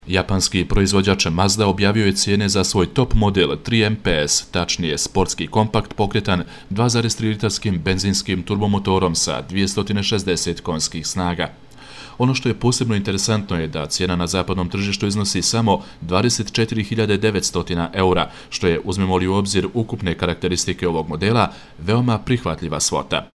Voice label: Bosnian Male

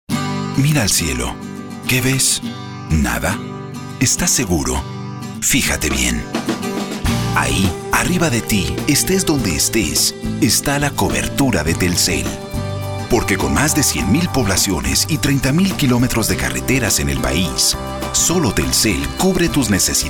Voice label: Spanish (Latin) Male

